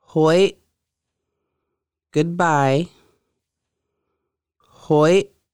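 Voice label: goodbye, conversational Lushootseed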